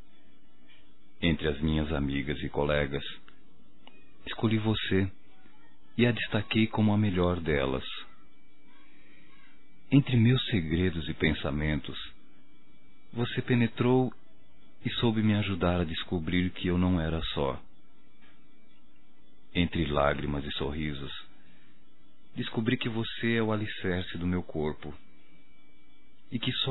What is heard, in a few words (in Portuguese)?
voz Eu Te Encontrei M, Só Voz, masc; Telemensagens; Telemensagens 2017